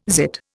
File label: "zit", Indian